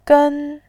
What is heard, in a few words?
"gen1", pinyin